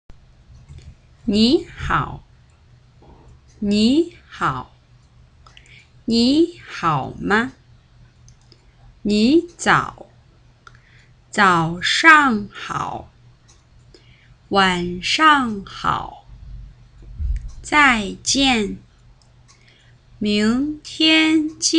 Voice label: huihua, chinese